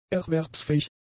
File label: "erwerbsfähig", German; adjectives